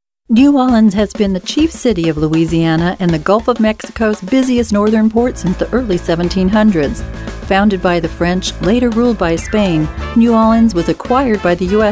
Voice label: energetic; WWII New Orleans

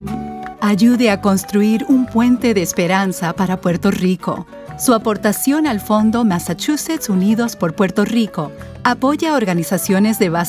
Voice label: Spanish PSA; Massachusetts United for Puerto Rico

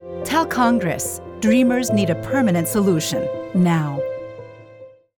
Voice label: English Dreamers Tag; The Libre Initiative

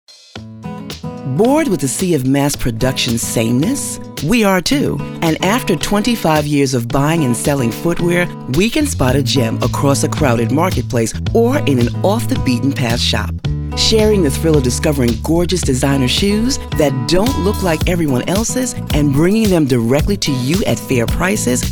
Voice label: Corporate Narration

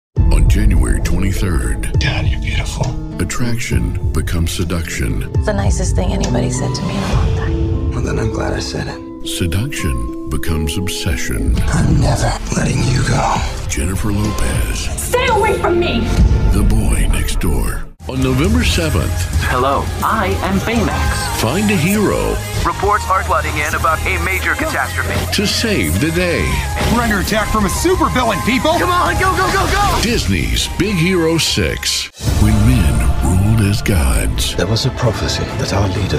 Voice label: Trailer; Movie-Game-Book Trailers

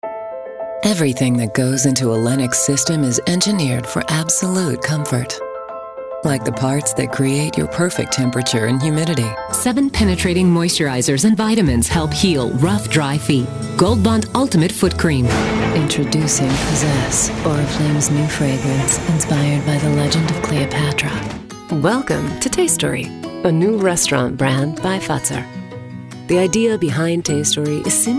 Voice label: Commercial

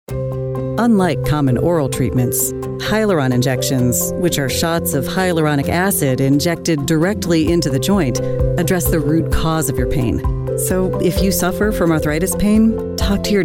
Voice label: Medical eLearning

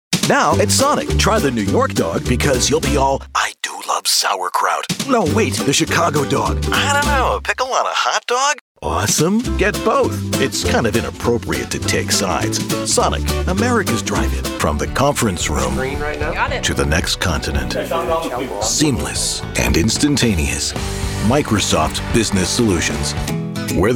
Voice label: Commercial

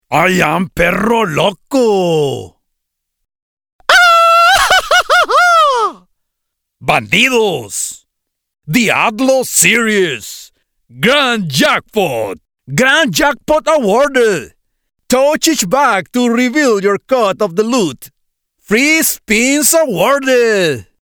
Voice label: Videogames Character Accented English

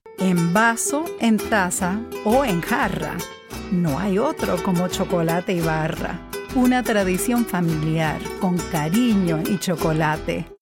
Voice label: Chocolate Radio Spot; Ibarra

